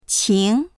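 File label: "qing2", Mandarin Chinese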